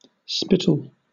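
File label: "spittle", British English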